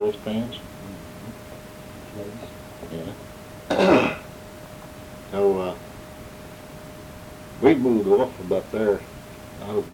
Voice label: Conversation with 59 year old white male Arkansas, american english dialect